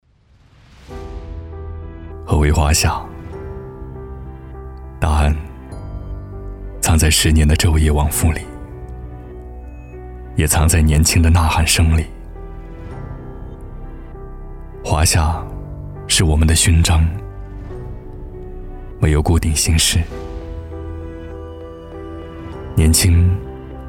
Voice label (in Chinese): 男 宣传片 讲述感 旁白沉稳; 银行宣传样音; 华夏银行郑州分行十周年